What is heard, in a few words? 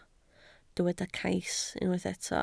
"cais", Welsh